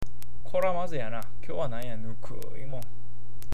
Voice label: "maze", awaji ben